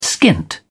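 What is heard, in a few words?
"skint", British English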